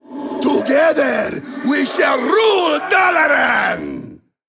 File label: Male Ethereal